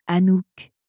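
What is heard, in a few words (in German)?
"Anouk", französische Mädchennamen, Aussprache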